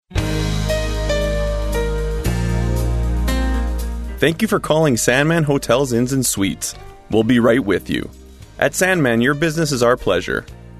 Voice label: On Hold